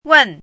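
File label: "wen4", Mandarin pinyin syllable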